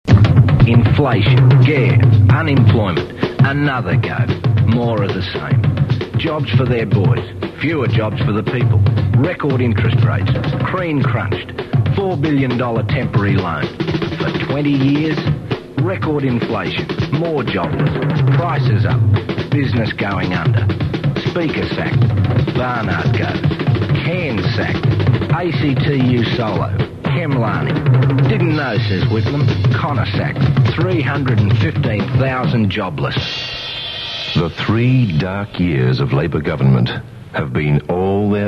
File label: liberal radio ad; election ads; 1975